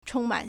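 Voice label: "chōngmǎn", Chinese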